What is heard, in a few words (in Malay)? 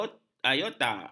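"aorta", Malay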